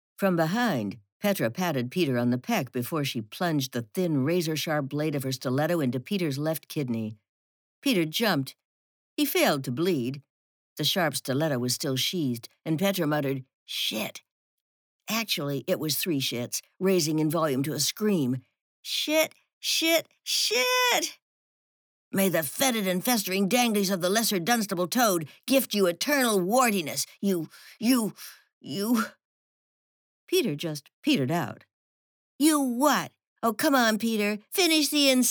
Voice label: fiction, voice demo